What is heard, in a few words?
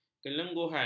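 "kelenguhan", Malay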